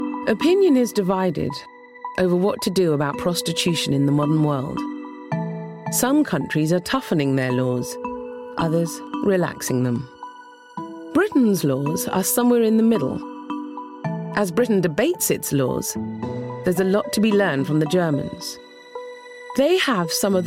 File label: Narration